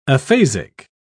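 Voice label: "aphasic", British English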